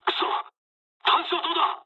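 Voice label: searchlite mood low, speech japanese; War Thunder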